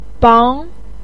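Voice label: "bang" second tone, Mandarin Chinese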